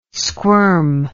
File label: "squirm", English